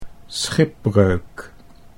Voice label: "schipbreuk", Dutch